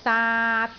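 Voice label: "daat3", Cantonese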